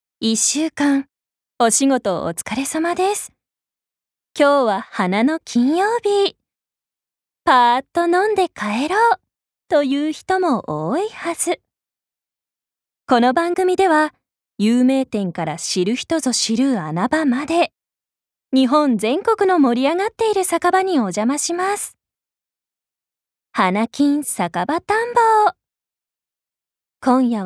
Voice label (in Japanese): ナレーション